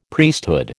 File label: "Priesthood", American